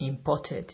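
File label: "imported", Nigerian Pidgin, male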